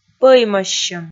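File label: "пӧимӧссьӧм", Komi, female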